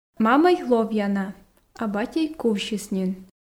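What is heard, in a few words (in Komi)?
мамӧй ловъя на а батьӧй кувсис нин, Komi, female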